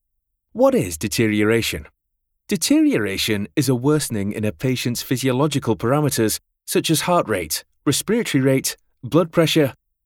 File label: NHS Training Video Voiceover